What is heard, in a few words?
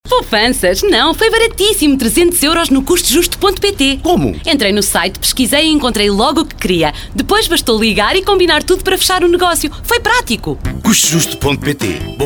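Portuguese, female, commercial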